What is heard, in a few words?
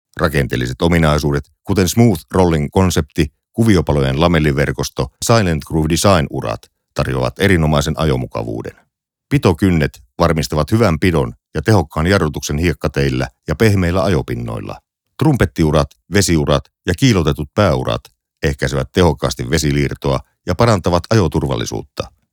Educational